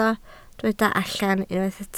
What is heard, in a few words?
"allan", Welsh